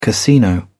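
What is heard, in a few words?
"casino", British English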